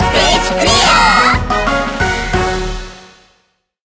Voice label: stage clear effect ja